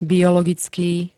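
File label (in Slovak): "biologický", Slovak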